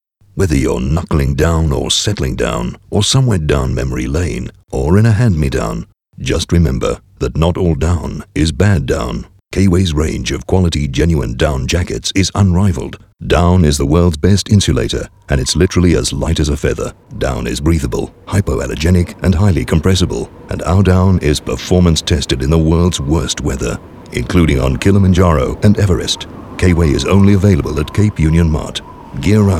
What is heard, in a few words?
radio ad